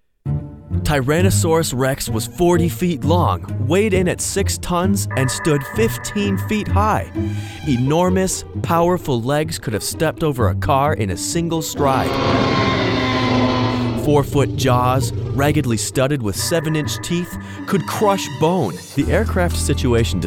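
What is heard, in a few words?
English US Narrative; GoLocalise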